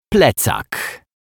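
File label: "plecak", Polish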